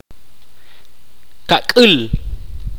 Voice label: "cuarenta", mopan